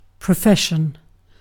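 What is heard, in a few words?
"profession", British English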